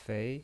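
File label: "fei" Mandarin Chinese, second tone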